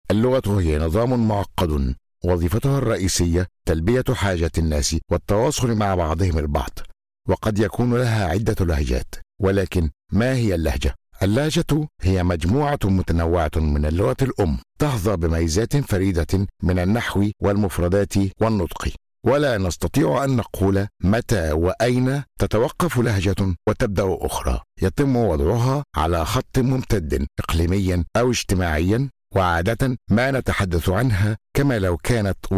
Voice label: Arapca Erkek Klasik Arapca; erkek sesler; seslendirme

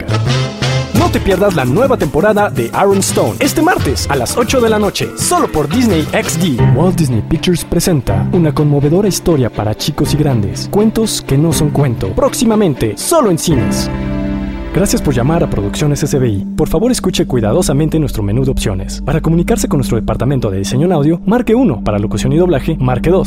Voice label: Ispanyolca Erkek

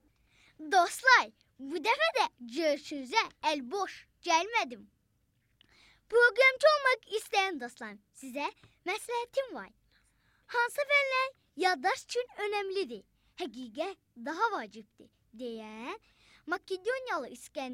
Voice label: Azerice Cocuk, seslendirme

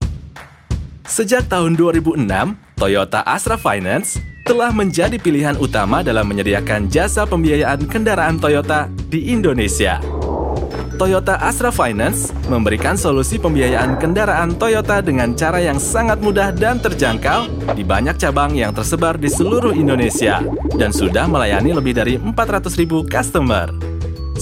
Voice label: Endonezce Erkek; seslendirme

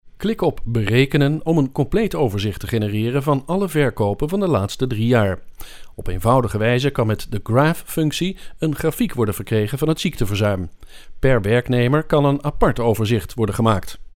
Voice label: Hollanda Erkek Felemenkce; seslendirme

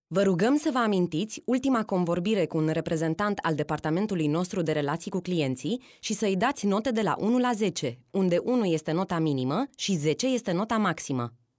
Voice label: Romence Kadin, romence seslendirme

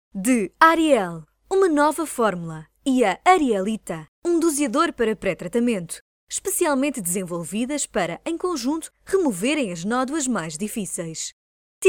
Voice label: Portekizce Kadin Portekiz; seslendirme; kadin sesler

